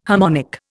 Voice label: "harmonic", Indian

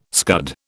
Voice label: "scud", British

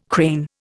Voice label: "crane", Indian

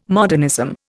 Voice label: "modernism", Indian

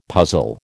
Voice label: "puzzle", American

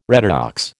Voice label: "redox", American

